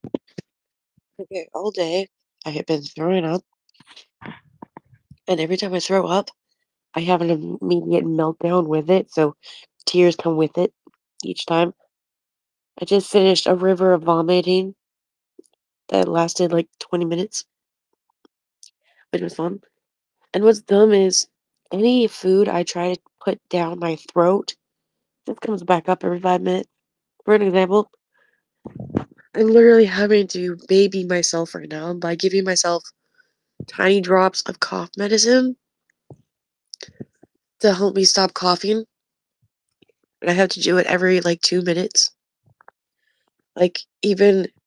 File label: voice message